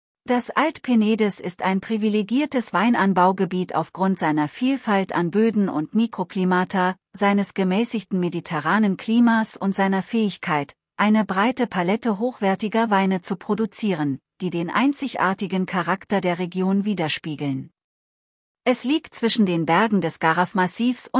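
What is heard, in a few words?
entorno German; entornoyvinedos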